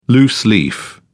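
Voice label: "loose leaf", British English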